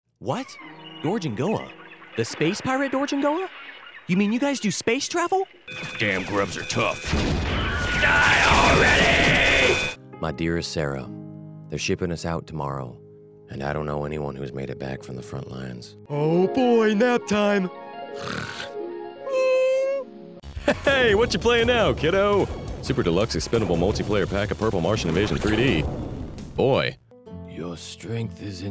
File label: animation